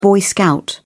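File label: "boy scout", English, British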